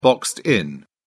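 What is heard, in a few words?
"boxed in", English, British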